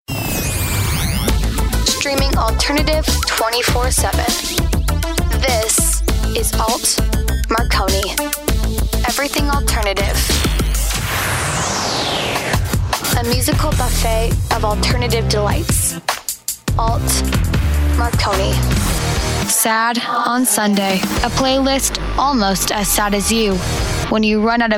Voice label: Teen Radio